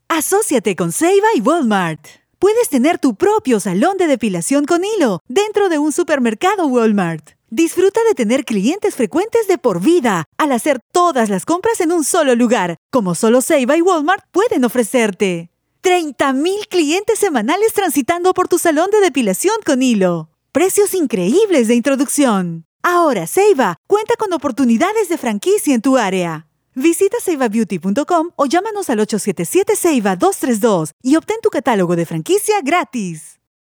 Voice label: Commercial